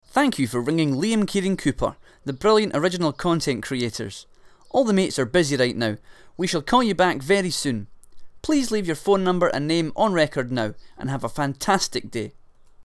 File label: Scottish